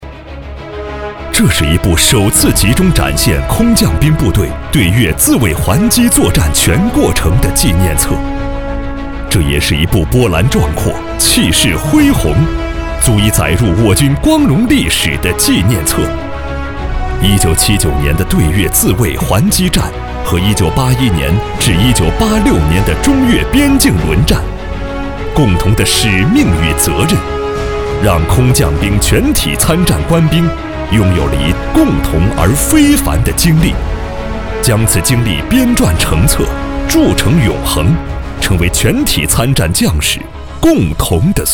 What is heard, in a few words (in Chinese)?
男 部队专题片; 男4号（厚重、大气）; 男4-部队专题片